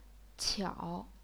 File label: "qiao3", chinese pinyin